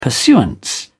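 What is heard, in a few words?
"pursuance", British English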